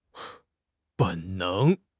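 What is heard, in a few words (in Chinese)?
"本能", Chinese; HSK6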